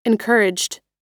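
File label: "encouraged", American English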